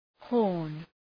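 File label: "horn", English; {hɔ:rn}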